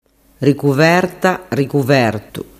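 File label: "ricuvertaertu", Sicilian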